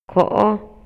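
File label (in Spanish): Maya, Yucatán, male; POSESIÓN; INALI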